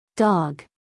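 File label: "dog", American English, female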